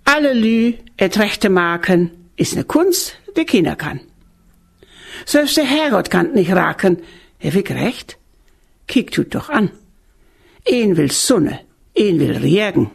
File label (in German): Plattdeutsch